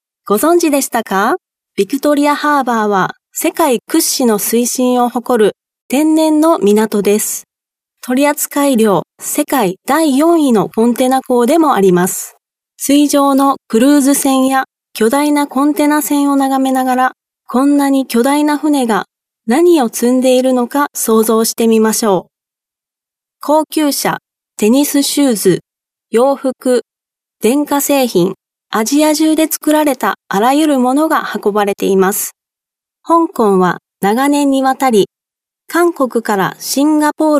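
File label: Japanese female corporate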